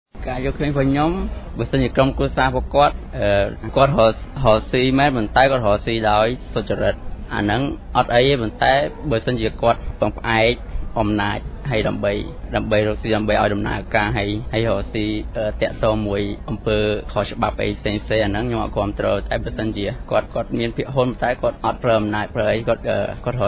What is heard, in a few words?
vox pop youth perspective